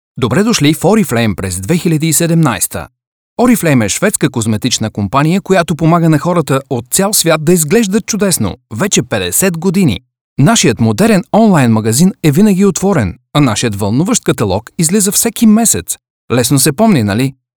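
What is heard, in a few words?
Bulgarian, male, commercial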